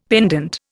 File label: "pendent", Indian; Dictionary